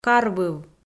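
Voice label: "карвыв", Komi, female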